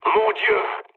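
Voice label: French trick mood low; War Thunder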